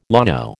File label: "llano", American